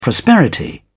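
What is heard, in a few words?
"prosperity", British English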